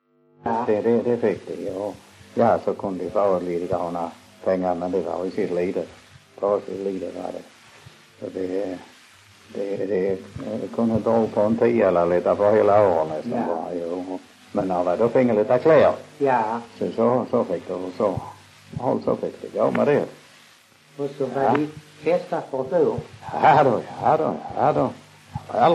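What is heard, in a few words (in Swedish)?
Munka-Ljungby Skåne, dialekter; Folkminnen; Svenska sagor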